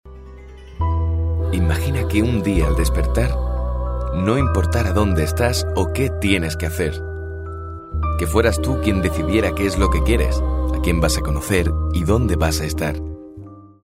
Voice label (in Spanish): Publicidad